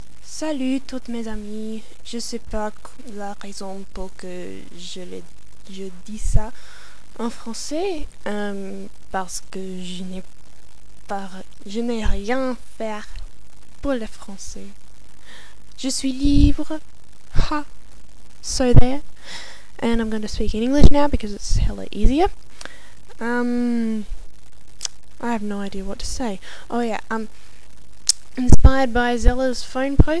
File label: aussie pron